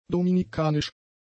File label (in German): "dominikanisch", German; adjectives